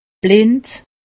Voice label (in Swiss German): "blind", alsace; medecine